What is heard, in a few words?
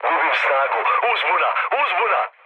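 Serbian damaged engine mood low, speech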